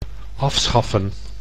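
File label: "afschaffen", Dutch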